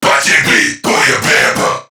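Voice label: Player Spotted Crewmen, English; Dialog; Barks; Battle; Warframe; CorpusCrewmen; CrewmanA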